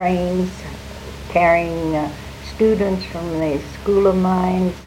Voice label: Oral history with 83 year old female Colorado, american english dialect